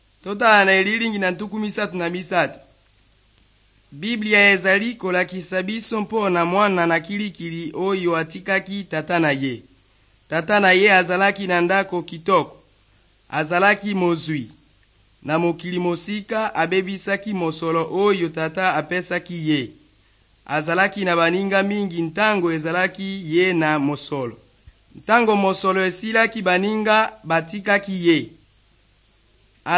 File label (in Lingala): good news lingala west, drama